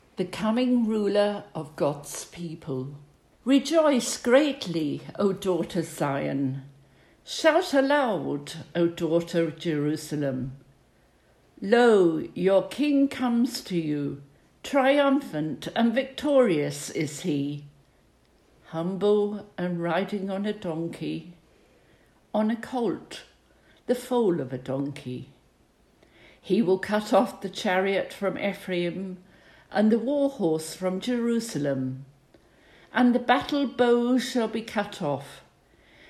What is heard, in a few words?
Carol Service Reading